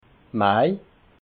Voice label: "mai", alsace

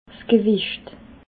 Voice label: "gewecht", alsace

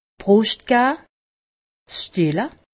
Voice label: "brust", alsace; medecine

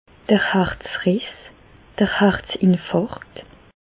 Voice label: "harzriss", Bas Rhin; infarctus; medecine

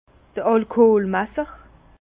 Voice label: "alkoolmasser", Bas Rhin; vin; alcoomètre

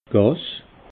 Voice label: "gass", alsace

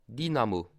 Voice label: "dynamo", alsace

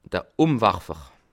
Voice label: "umwarfer", Alsace

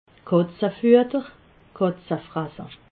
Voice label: "katzefuetter", alsace

